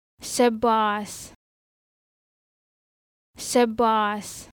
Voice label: "Well done", Nepali

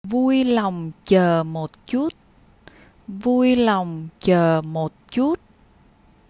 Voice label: Please wait for a while vietnamese; Concern for needs

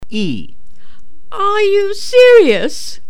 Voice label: emotion

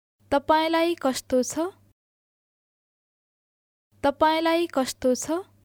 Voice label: How are you, Nepali

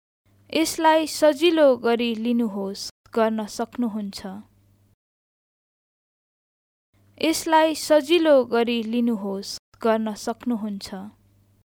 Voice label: Take it easy! (Name) can do it, Nepali

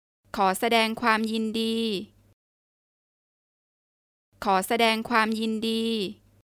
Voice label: Congratulations Thai

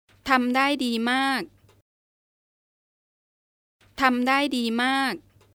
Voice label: "Well done", Thai

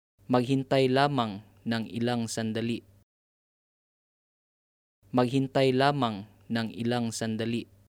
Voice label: Please wait for a while, Tagalog

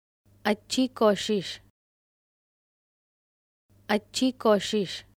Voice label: Good try, Hindi

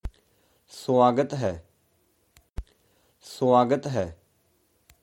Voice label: Welcome punjabi

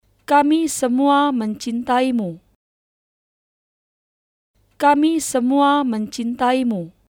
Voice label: We all love you, Indonesian

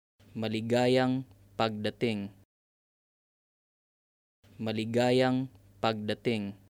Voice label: "Welcome", Tagalog

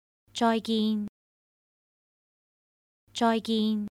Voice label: Goodbye Chinese

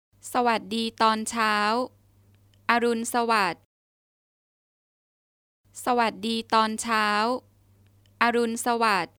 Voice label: Good morning Thai

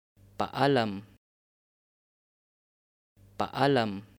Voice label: "Goodbye", Tagalog

